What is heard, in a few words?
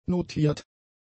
"notiert", German; adjectives